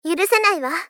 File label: Gentle Angry "Yurusenai wa"